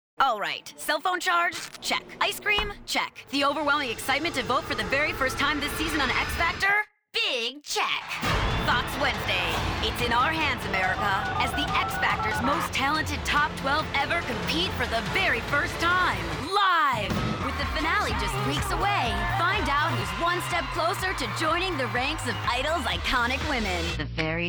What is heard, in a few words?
Promo, female voices